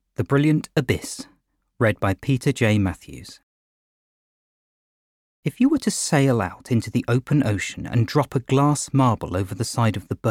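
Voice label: Non Fiction Narration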